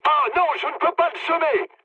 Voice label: aircraft damaged mood med, speech fr; War Thunder

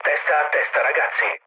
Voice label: near waypoint mood low, speech it; War Thunder

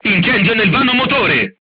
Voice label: voice message fire in tank, crew tanks italian

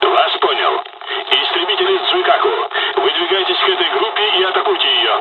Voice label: dialogs russian; War Thunder

